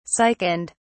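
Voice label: "sickened", British English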